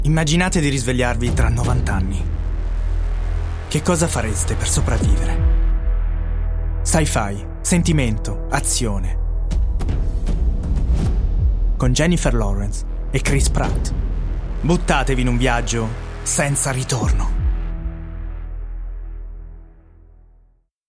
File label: italian movie trailers